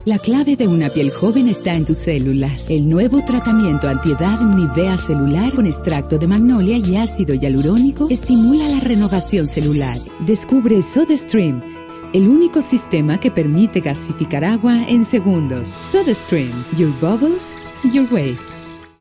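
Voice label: Spanish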